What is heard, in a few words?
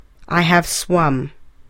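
"I have swum", English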